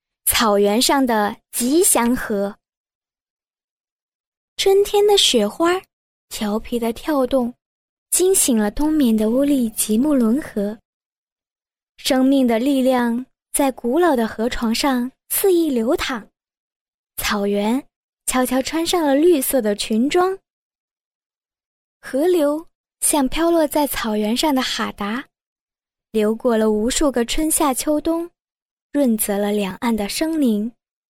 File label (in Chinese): 女 专题解说 年轻自然; 乌力吉沐沦河; 女007-年轻甜美; 甜美亲切